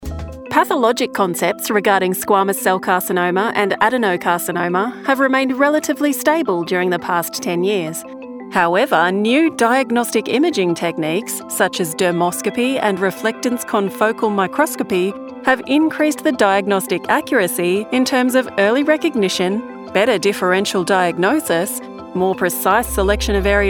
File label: Carcinoma, medical narration